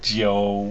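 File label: "jiu", Mandarin Chinese pinyin; Mandarin Chinese Pronunciation; pinyin sounds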